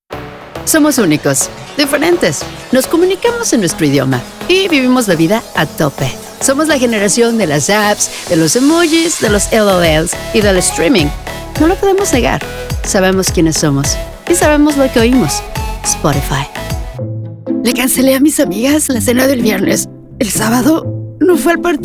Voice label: spanish commercial; (I) Mexican Spanish Speaker. Lovely voice with gravity that's youthful and clear.